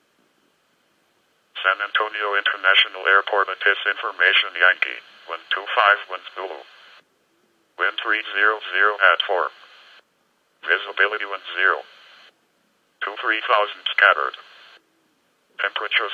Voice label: ATIS; KSAT